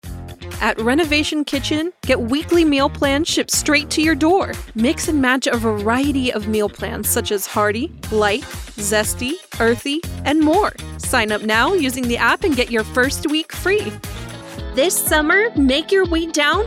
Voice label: Commercial

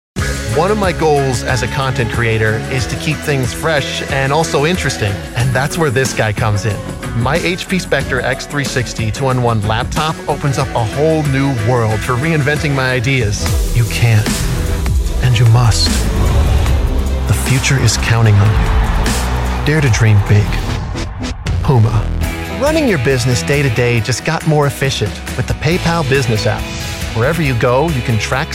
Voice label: Commercial

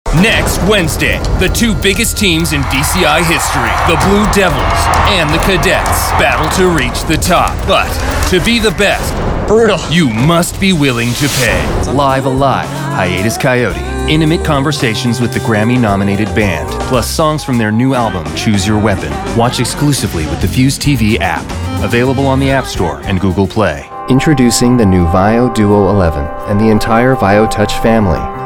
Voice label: voice promo commercial

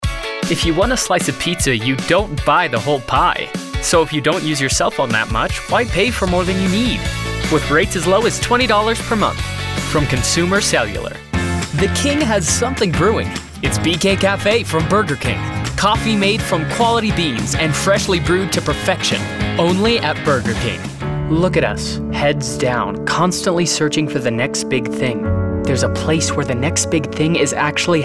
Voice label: Commercial

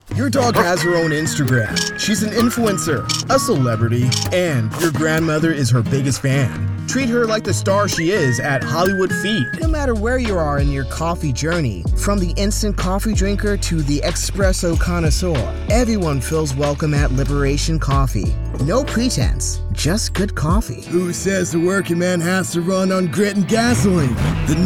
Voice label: Commercial

